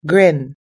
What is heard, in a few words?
"grin", us english